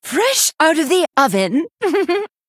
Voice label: Female Night Elf